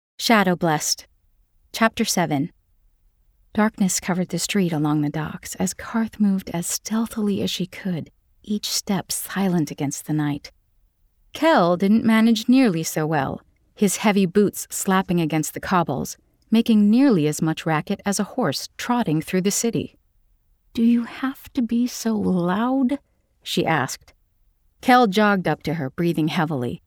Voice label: Dialog Male Female Audiobook